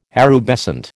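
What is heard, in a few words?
"erubescent", American English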